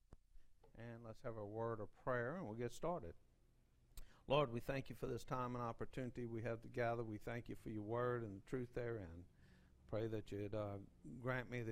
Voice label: Preaching